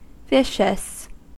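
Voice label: "vicious", US English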